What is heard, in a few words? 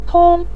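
"tong", Mandarin Chinese syllable, neutral tone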